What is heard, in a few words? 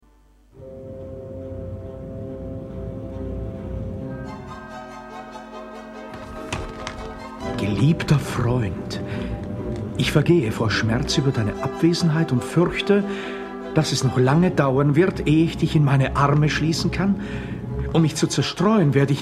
dialog; flotow; die witwe grapin